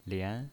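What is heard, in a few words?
"lian", pinyin, Mandarin Chinese, second tone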